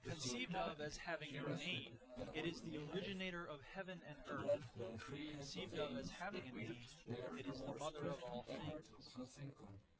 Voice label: male4 inst sim